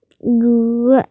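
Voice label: "nv3", Mandarin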